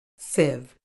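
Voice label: "sieve", British English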